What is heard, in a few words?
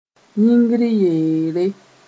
"yĩngr yeere", Mooré